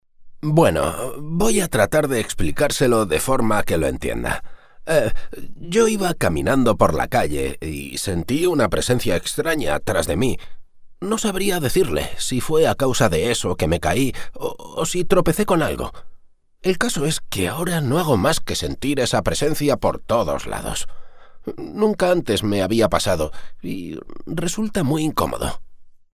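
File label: Spanish Spain male voice over, character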